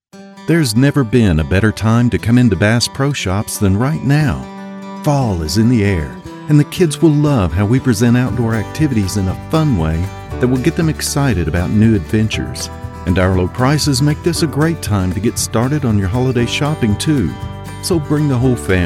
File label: us male; lektoring